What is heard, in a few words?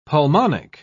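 "pulmonic", British English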